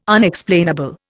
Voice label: "Unexplainable", Indian

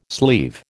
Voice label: "sleeve", American

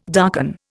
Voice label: "darken", Indian

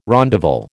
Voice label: "rondavel", American

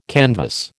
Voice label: "canvass", American

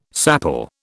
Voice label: "sapele", British

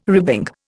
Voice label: "ribbing", Indian

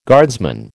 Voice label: "guardsman", American

